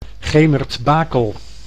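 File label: "Gemert-Bakel", Dutch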